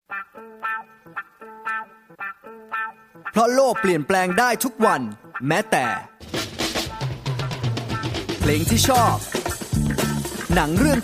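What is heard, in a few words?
Thai Commercial; GoLocalise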